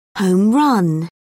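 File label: "home run", British English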